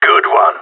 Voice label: good shooting, radio; GSG9

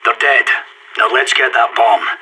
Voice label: bombsite all enemies dead, radio; SAS

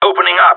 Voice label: in combat, radio; GSG9

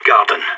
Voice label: garden, radio; SAS

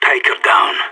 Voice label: enemy down, radio; SAS

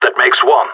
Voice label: one enemy left, radio; GSG9

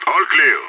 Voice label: clear, radio; IDF

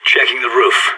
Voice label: checking roof, radio; SAS

